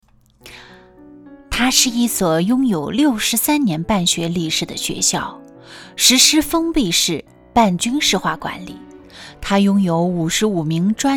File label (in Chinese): 有背景音; 云雾中学